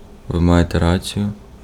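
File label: Ukrainian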